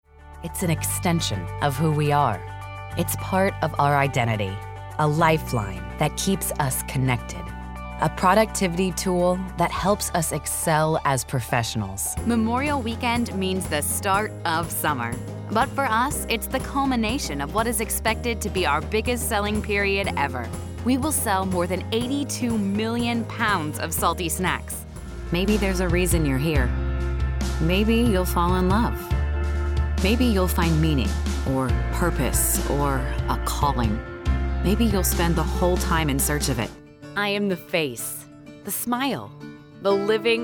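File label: narration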